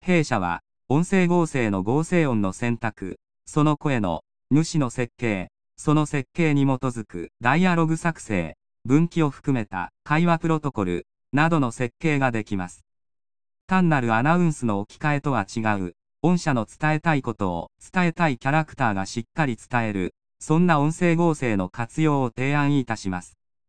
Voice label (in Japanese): 男アナ合成の説明部; 音声合成